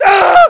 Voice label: grunt die; cncgrunt

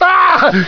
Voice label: scientist die violent

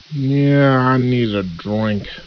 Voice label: gangster idle

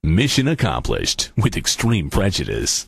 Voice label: cheer

